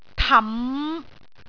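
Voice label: "tam5", Cantonese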